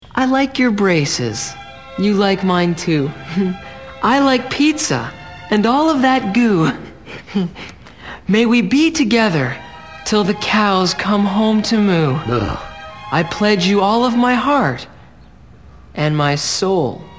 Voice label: poem